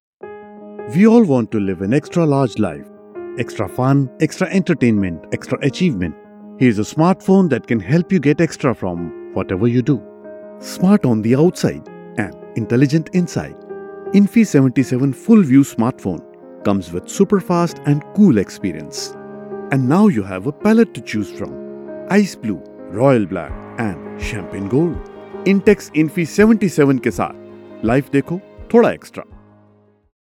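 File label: TVC English; Intex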